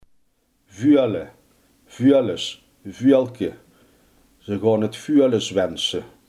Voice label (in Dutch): "veulen", limburgse